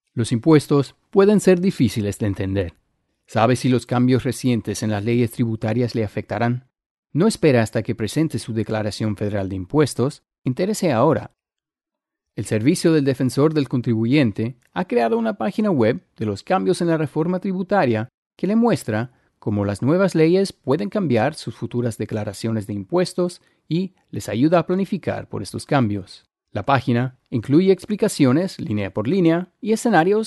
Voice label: PSA Spanish no music; Tax Reform; 55 Sec